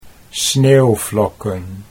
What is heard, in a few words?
"sneeuwvlokken", Dutch